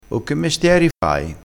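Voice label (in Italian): "mestieri", dialettale crespinese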